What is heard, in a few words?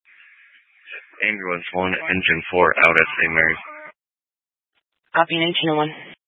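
Fire Dispatch, scanner audio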